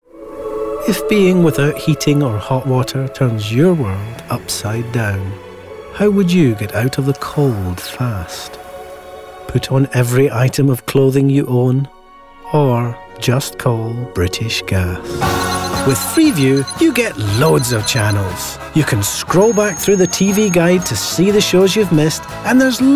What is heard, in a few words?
montage commercial